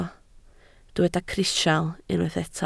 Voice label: "crisial", Welsh

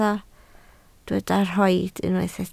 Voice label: "rhoid", Welsh

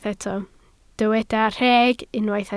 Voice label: "rheg", Welsh

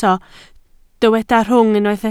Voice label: "rhwng", Welsh

